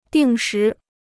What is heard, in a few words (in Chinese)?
"定时", Chinese